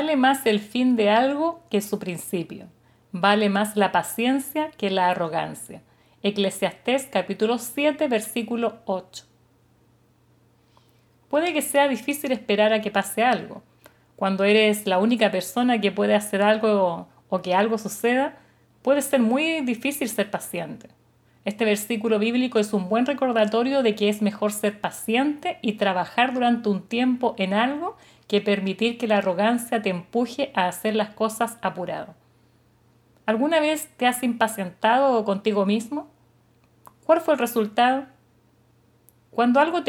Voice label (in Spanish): devocional